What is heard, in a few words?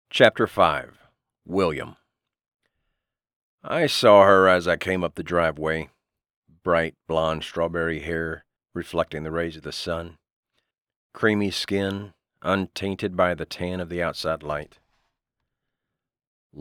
Audio Book